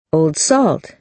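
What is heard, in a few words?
"old salt", American English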